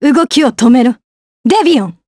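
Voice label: Vox Skill, voices, Japanese; Isolet; kingsraid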